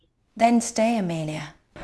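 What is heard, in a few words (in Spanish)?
Voice Acting, Spanish, female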